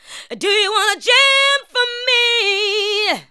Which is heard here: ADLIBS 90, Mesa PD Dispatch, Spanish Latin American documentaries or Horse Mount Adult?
ADLIBS 90